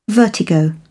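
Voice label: "vertigo", British English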